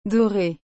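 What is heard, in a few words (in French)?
"dorer", French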